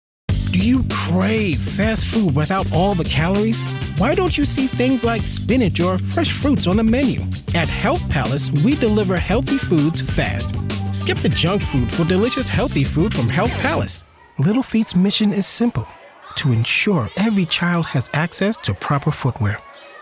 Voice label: Commercial